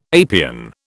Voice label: "apian", British